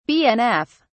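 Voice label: "bnf", British English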